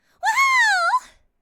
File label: excited